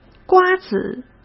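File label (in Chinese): "瓜子", Mandarin Chinese; chinese-flashcards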